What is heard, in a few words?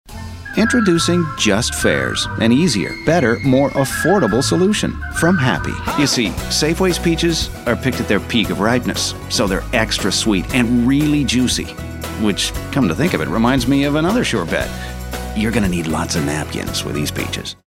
ENGLISH (USA) Male